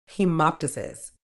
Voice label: "haemoptysis", medical English